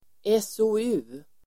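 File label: "SOU", Swedish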